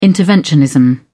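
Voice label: "interventionism", British English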